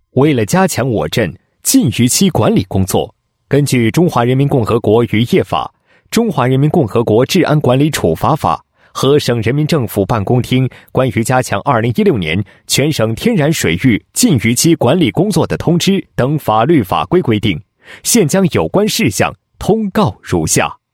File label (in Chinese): 男 通告 某镇关于加强禁渔期管理工作的通告